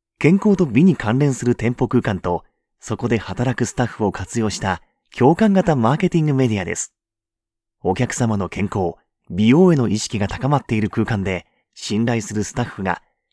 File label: male; 化粧品のCM